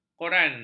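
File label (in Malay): "koran", Malay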